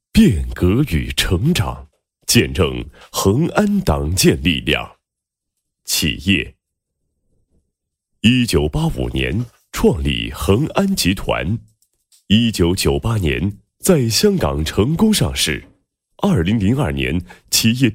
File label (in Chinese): 男, 党建; 见证恒安党建力量配音